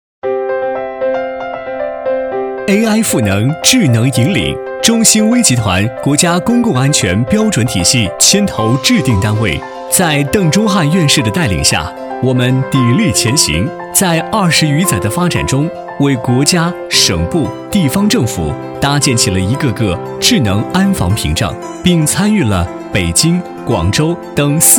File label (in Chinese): 男 专题 科技感; 中星微集团